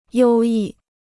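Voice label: "优异", Chinese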